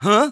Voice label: conversation, English Voice